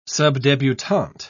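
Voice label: "subdebutante", British English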